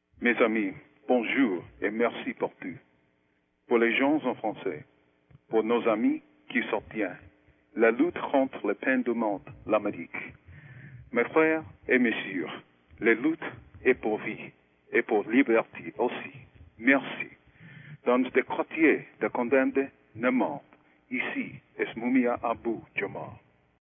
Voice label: french salutation